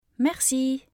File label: "merci", French; thank you